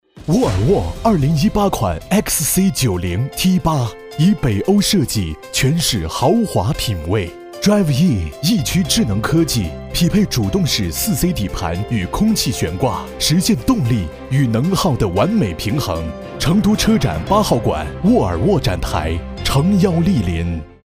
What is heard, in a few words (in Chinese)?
广告; 沃尔沃